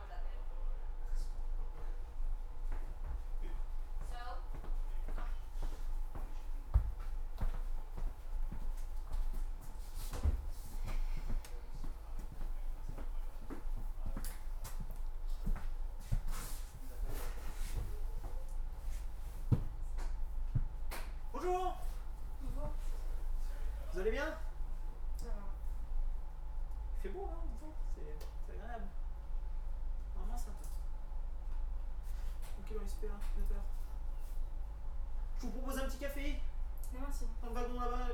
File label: Harass French